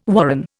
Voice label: "warren", Indian